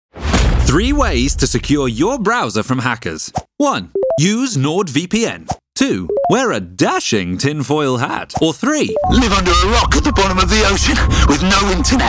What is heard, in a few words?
Commercial